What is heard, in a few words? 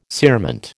"cerement", American